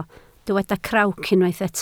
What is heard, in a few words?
"crawc", Welsh